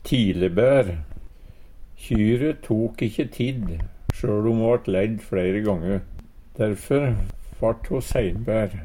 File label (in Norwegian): tilebaer, Numedalsmål